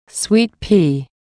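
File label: "sweet pea", English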